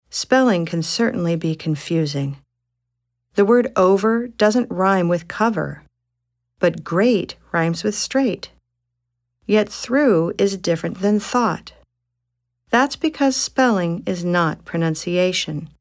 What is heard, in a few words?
Recitation